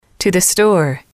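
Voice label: to the store, English, female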